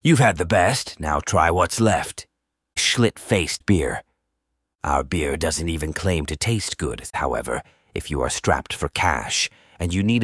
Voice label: male, fake sponsors; schlit faced